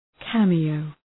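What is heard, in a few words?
"cameo", English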